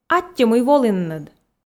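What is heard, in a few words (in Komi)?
аттьӧ мый волінныд, Komi, female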